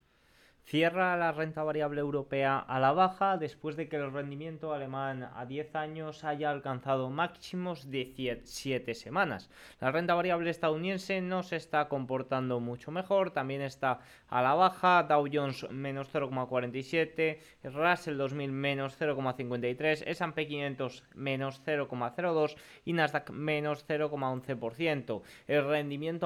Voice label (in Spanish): radio; la baja despues de que el rendimiento se situe en maximos de 7 semanas